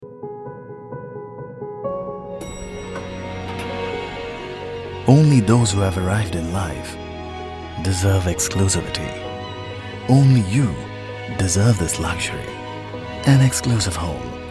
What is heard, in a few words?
TVC